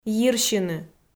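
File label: "йирсьыны", Komi, female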